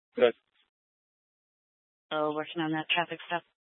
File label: Police Department Dispatch